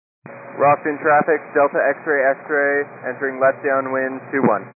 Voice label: 122 725MHz, scanner radio